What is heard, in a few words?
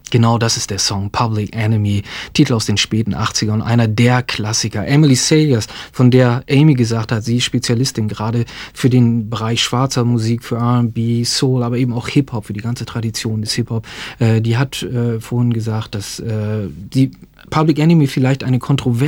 german translation